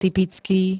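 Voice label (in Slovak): "typický", slovenské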